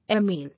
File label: "Airmail", English